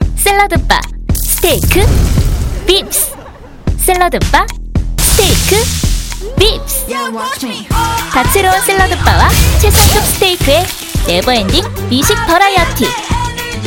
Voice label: 광고 밝은 당찬 성우

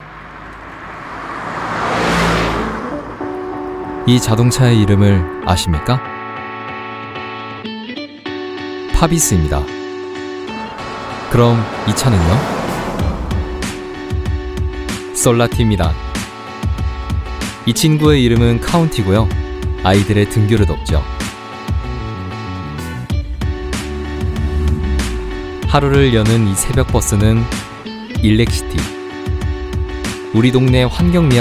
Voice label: 광고 샘플 내츄럴 젊은; 현대자동차

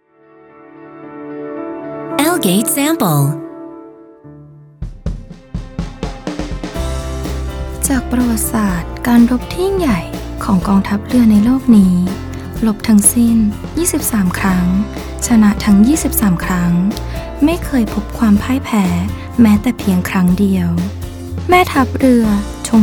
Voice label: 태국 여 차분한 톤

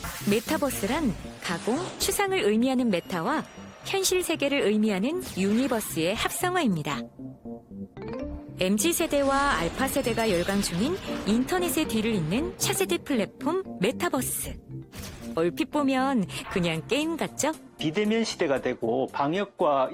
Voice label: 30대 정보전달 나레이션

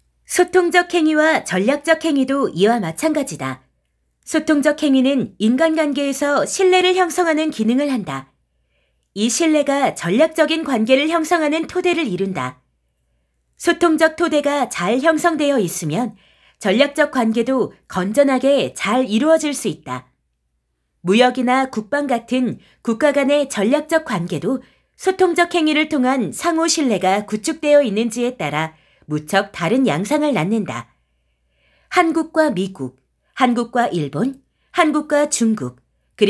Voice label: 나래이션 약간밝고 가벼운톤

